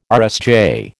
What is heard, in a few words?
"RSJ", American